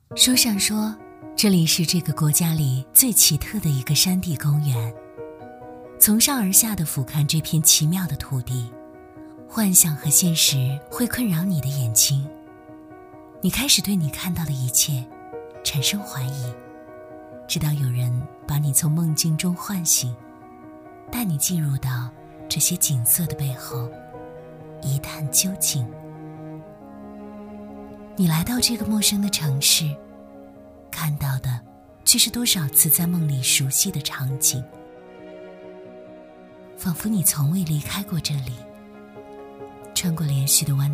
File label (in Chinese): 旅游宣传片; 贵州